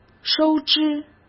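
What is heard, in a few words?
"收支", Mandarin Chinese; hsk; chinese flashcards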